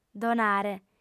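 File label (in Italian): "donare", lingua sarda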